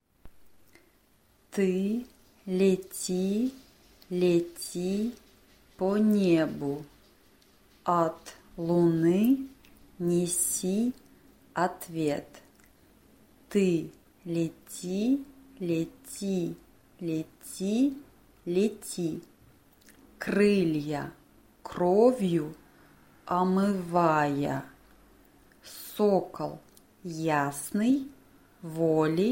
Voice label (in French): valse refrain parlé